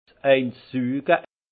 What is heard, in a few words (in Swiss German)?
"suge", alsace; en aspirer une lampée; vin